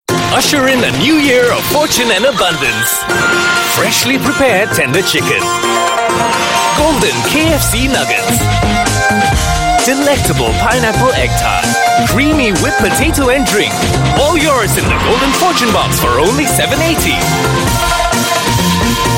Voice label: TVC, English Singaporean Male; KFC Golden Fortune Box

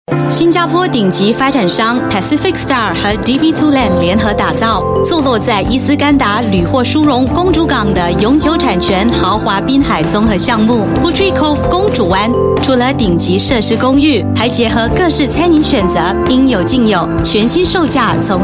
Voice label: Mandarin Female; China; Pacific Star Property

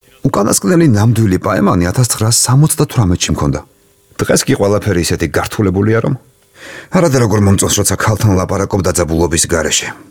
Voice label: Male Georgian

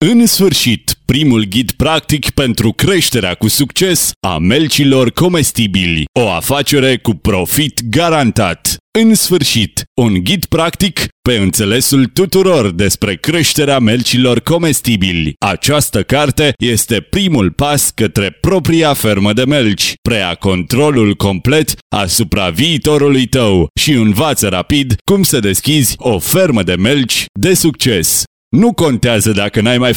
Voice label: Romanian, Male

